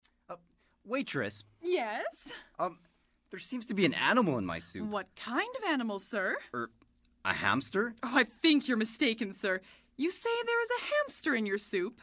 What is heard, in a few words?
Radio Drama; The Guinea Pig